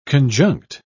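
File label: "conjunct", British English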